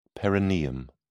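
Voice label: "perineum", British English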